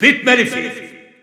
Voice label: French Announcer; Dark Pit; SSBU